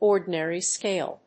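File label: "ordinary scale", English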